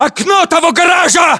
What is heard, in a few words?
landmark near garage, ru; localized english